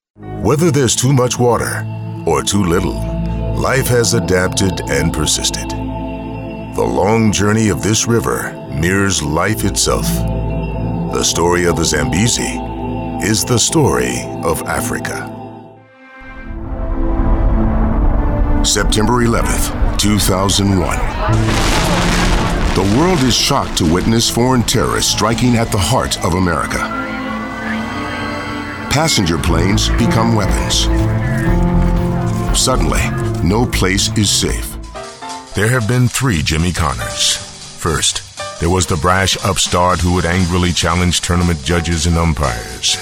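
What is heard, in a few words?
Narration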